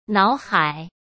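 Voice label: "脑海", Mandarin Chinese; hsk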